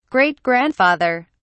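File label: "great grandfather", British English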